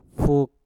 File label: "fu", Mpur; jahit